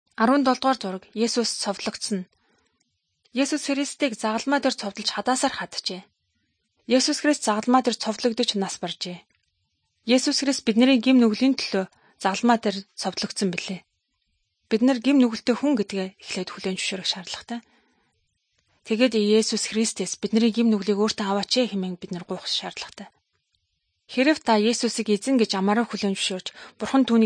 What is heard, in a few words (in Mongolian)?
good news mongolian